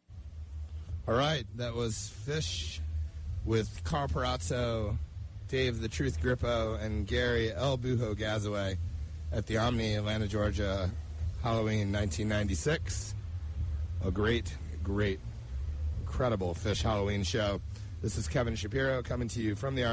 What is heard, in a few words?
radio banter; Bonnaroo